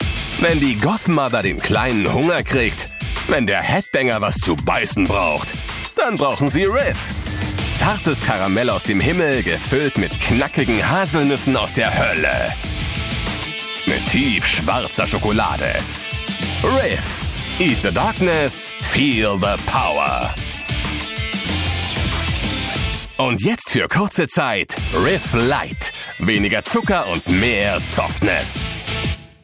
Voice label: Werbe Spot, Commercial (Werbung), German; Riff - Demo-Werbespot

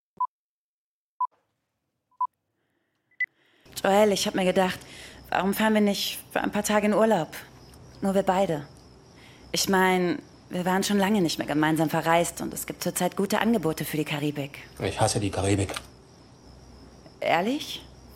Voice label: Synchron; Ausgequetscht

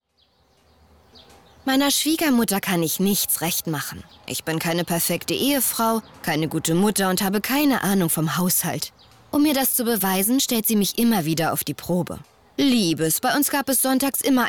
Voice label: testimonial schwiegermutter; LOFT VOICES

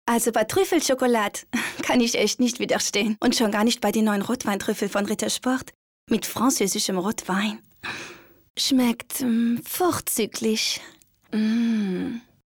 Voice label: akzent franzoesisch suess

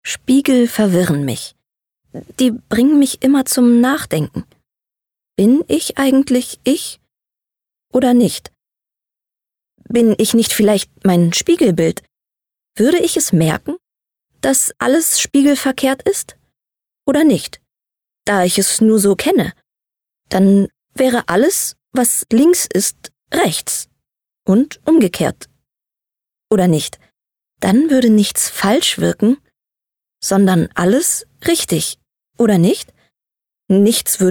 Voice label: Innerer Monolog

